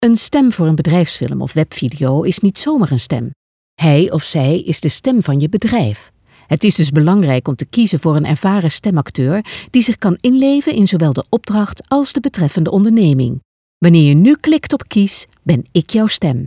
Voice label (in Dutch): BEDRIJFSFILM